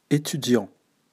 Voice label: French accent aigu